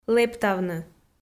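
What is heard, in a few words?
"лэптавны" Komi, female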